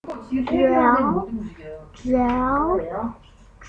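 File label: "jab", spoken word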